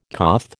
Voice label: "coth", American